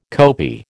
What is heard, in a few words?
"kopi", American